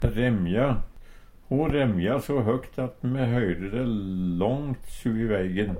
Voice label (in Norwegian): remja, Numedalsmål; Ho remja so høgt at me høyrde de långt sui veigen.